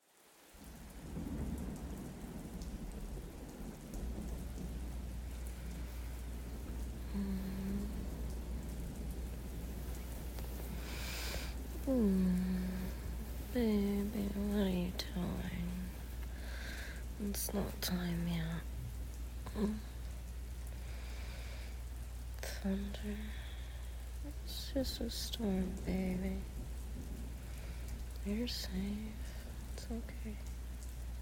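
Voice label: Comforts During A Thunderstorm, Improv, female; F4M; Mommy Comforts Her Good Boy During A Thunderstorm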